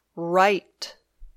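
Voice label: "write", English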